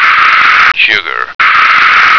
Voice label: "sugar", American